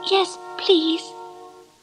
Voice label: yes please, girl

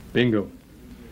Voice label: "bingo", male; Voice; expletives

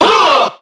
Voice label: "uh" group of men

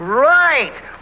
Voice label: "right", boy

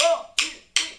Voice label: 1 2 3 p with clicks; Voice; numbers and letters

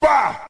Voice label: "bah", male

